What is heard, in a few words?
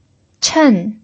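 "chen", pinyin, fourth tone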